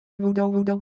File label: Ewe Texture, IdeoPhones